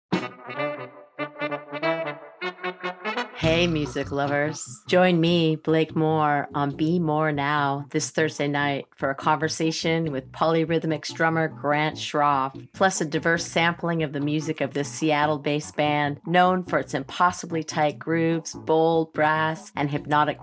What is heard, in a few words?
promo